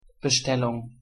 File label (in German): "Bestellung", de